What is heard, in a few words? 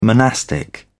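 "monastic", British English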